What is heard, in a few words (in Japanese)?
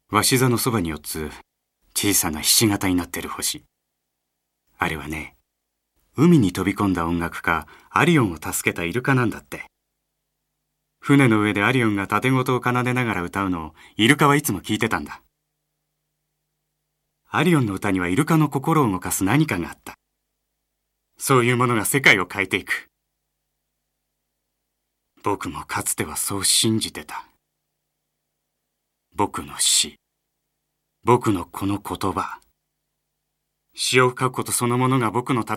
語りかけ